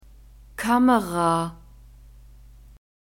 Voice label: "kamera", German; nouns gender feminine

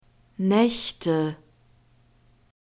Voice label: "naechte", german

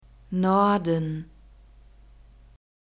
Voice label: "norden", german; points of compass

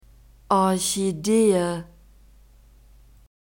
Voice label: "Orchidee", German; nouns gender feminine

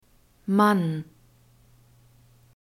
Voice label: "mann", german; alphabet

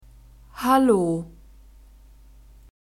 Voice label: "hallo", German

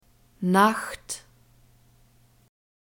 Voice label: "nacht", german; daytimes

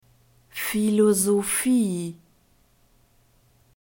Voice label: "Philosophie", German; phonetic script grouped consonants

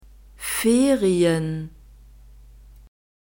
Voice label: "ferien", German

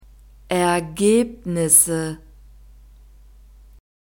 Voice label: "ergebnisse", German

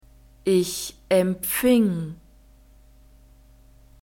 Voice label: "ich empfing", German; verbs past tense irregular

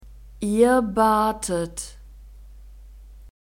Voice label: "ihr batet", German; verbs past tense irregular

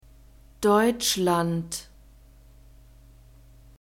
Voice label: "deutschland", German; nouns gender feminine

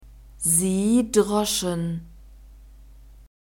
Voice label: "sie droschen", German; verbs past tense irregular

